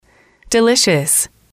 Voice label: "delicious", F

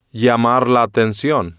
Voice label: "llamar la atencion", Spanish

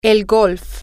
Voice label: golf, spanish, F

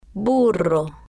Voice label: "burro", spanish

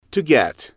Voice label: "to get", English

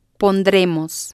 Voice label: "pondremos", spanish

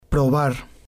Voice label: "probar", Spanish

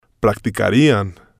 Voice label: "practicarian", spanish

